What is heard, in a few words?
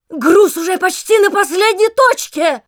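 Announcer cart attacker final warning, Russian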